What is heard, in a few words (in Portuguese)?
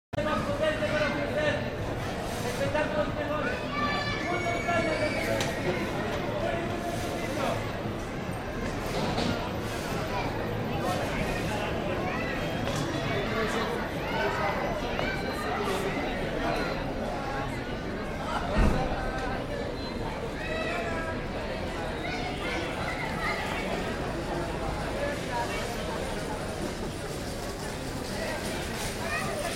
pessoas conversando